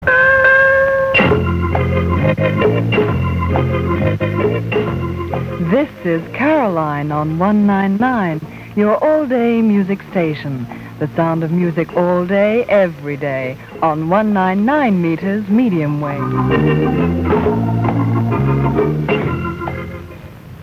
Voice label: Early ID announcement; Radio Caroline 1964